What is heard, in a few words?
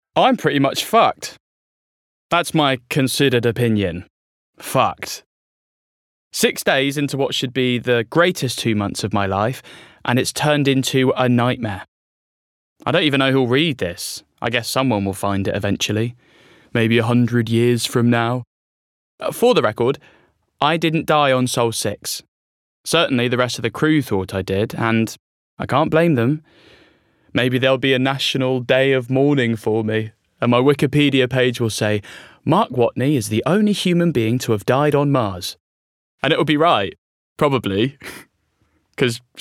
Audiobook; The Martian